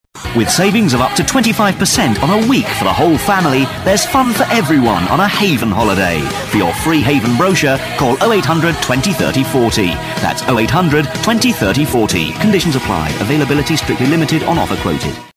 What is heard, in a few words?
Commercial; Haven; Vocal Point